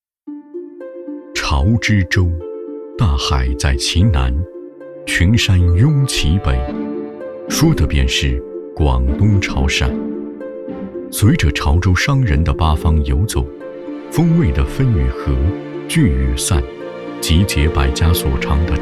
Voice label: 男 舌尖美食 潮汕菜

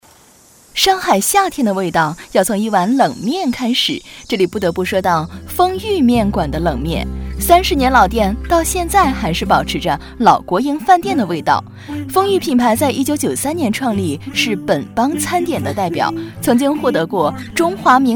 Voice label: 女 短视频 凉面; 丰裕凉面

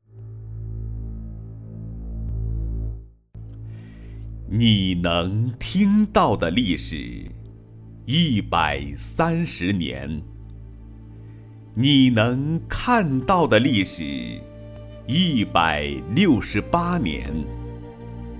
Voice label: 广告, 配乐, 国语男声配音; 高音经济型; 国窖1573

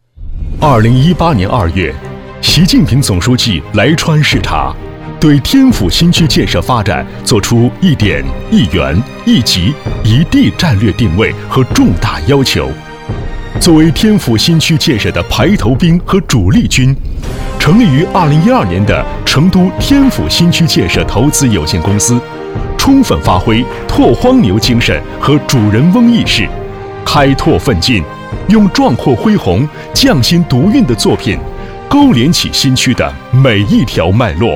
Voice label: 男 专题

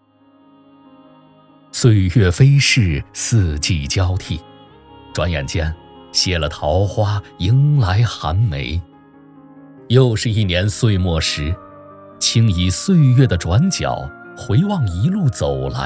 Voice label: 男 新年祝词

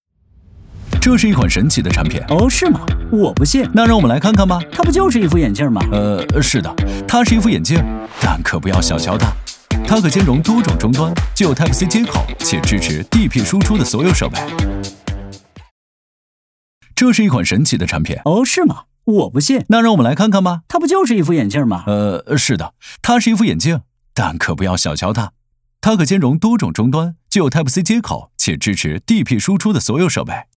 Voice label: 男 洋气活力 tvc

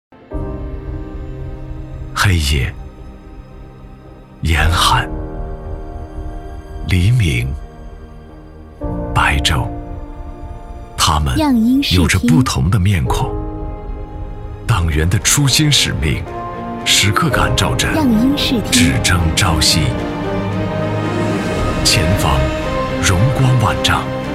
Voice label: 男 广告; 党员的初心使命

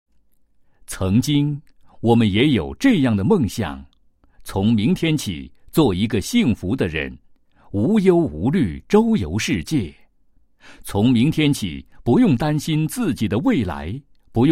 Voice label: 男; 企业; 太平洋保险宣传视频

